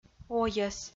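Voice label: "ойӧс" Komi-Zyrian, female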